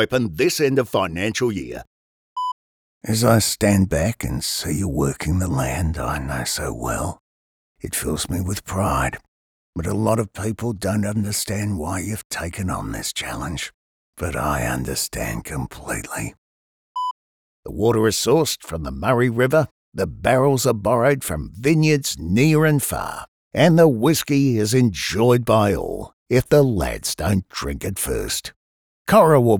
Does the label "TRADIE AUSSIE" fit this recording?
Yes